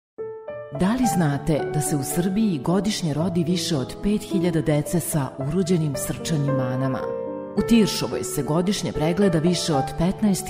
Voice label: serbian movie trailers